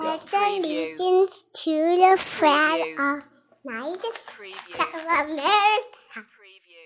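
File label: Child I Pledge Allegiance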